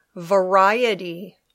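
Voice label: "variety", English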